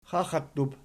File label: "xaxattub", Archi